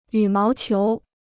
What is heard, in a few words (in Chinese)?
"羽毛球", Chinese